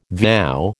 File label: "vow", American